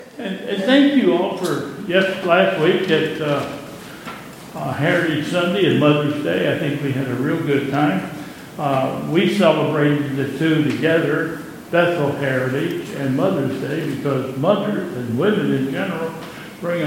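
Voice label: Announcements, church service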